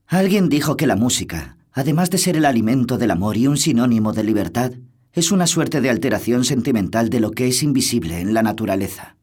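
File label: Spanish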